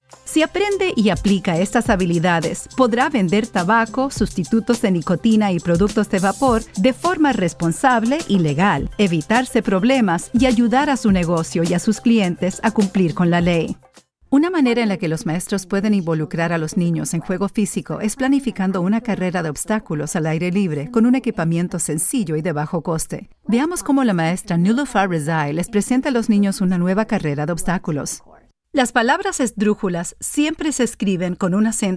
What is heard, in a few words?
eLearning Spanish